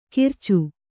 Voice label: "ಕಿರಚು", kannada

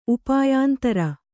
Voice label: "ಉಪಾಯಾಂತರ", Kannada

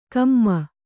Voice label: "ಕೊಮೆ", Kannada

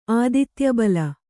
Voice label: "ಆದಿತ್ಯಬಲ", Kannada

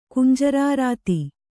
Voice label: "ಕುಂಜರಾರಾತಿ", Kannada

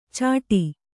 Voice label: "ಚಡಿ", Kannada

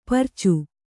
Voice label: "ಪರಚು", Kannada

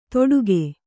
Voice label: "ತೊಡುಗೆ", kannada

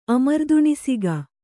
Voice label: "ಅಮರ್ದುಣಿಸಿಗ", Kannada

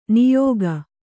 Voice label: "ನೆಯಿಗೆ", Kannada

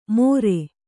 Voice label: "ಮೊರೆ", Kannada

